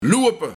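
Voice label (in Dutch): "Loewepe", Luik, Lopen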